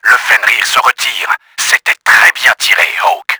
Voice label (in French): MAJOR BLOW, french; Battle Engine Aquila; XBOX